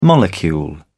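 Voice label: "molecule", British English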